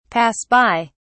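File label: "pass by", British English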